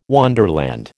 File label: "wonderland", American